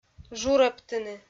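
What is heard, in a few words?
"журӧбтыны", Komi, female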